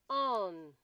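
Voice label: "on", Nigerian Pidgin, female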